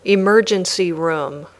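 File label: "emergency room", English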